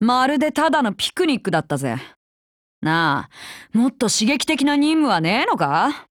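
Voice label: Japanese; PM1910; OPERATIONOVER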